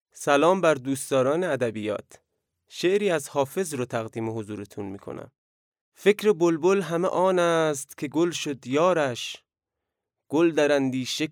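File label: Farsi, Iran, male, voice over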